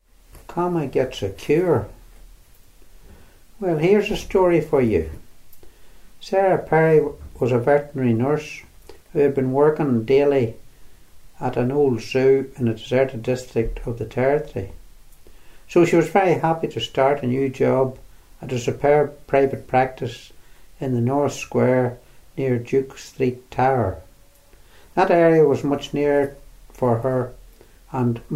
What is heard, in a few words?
passage, dialects ni